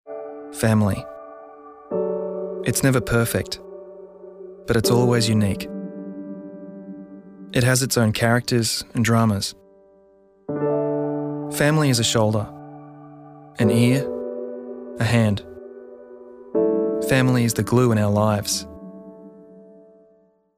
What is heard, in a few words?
australian male warm heartfelt